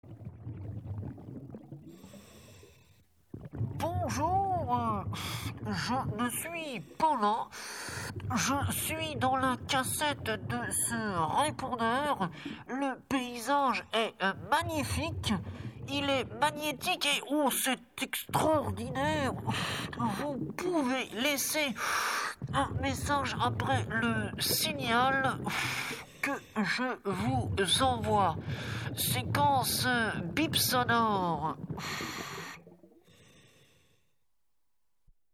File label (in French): Boite Vocal